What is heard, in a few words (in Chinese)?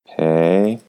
"pei2", Chinese